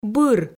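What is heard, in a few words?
"быр", Komi, female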